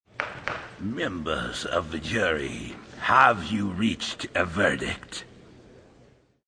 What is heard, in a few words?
Reach Verdict, Male